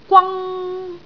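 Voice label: "gwang1", Cantonese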